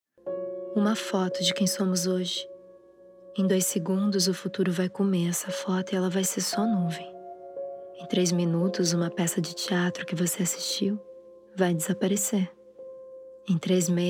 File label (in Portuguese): Inspiracional; CiaHIato